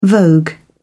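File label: "vogue", British English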